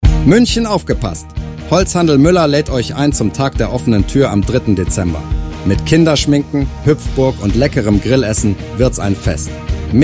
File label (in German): Neue Sprachsynthese